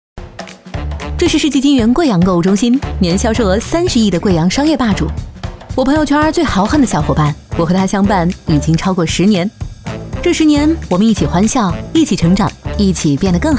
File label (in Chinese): 女 国 大音 自然调侃; 世纪金源贵阳购物中心